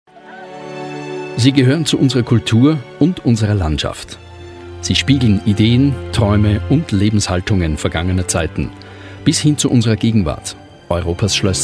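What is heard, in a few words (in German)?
Austrian, Neutral; Fremdtake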